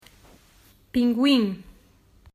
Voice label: "Pinguim", Portuguese

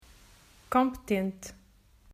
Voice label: E Reduced Pronunciation "Competente", Portuguese

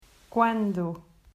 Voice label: "Quando", Portuguese

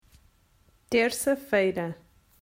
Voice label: "Terça", Portuguese

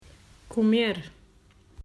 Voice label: "Comer", Portuguese

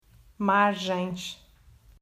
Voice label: "Margens", Portuguese

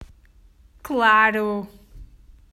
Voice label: "Claro", Portuguese

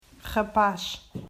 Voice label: "Rapaz", Portuguese

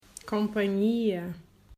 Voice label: "Companhia", Portuguese

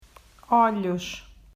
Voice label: "Olhos", Portuguese

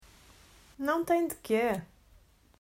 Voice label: Não Tem De Que, Portuguese

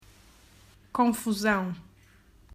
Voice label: "Confusão", Portuguese

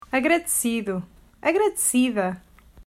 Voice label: Agradecido Agradecida, Portuguese

